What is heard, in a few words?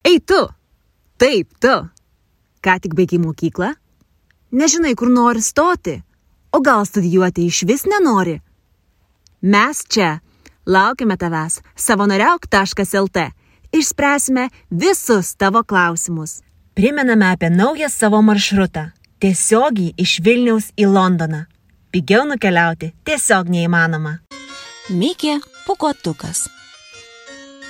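Lithuanian, general; GoLocalise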